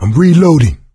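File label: reloading quiet, male; Left 4 Dead 2; coach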